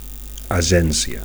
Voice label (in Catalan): "agencia", Catalan; (f) agency